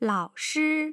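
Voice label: "laoshi", Mandarin Chinese, tone pairs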